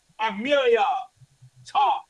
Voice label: Voice Acting es M